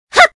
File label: man